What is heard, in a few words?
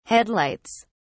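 "headlights", British English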